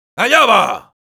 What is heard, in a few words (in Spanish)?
Sniper positive vocalization, es